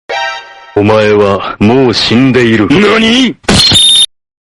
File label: naniiiii